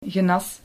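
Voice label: "genas", Dutch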